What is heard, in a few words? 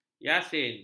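"yasin", Malay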